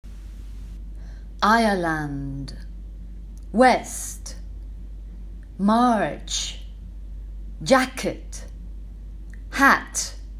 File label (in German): Englisch Aufgabe St Patricks Day Woerter; Grundschul-Blog